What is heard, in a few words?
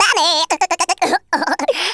"fatality", wacky speech